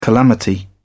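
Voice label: "calamity", English, British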